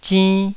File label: "zinn2", taiwanese